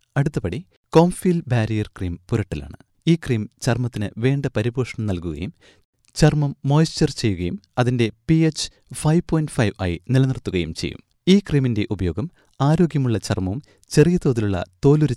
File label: Commercial